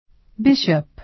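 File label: "bishop" American English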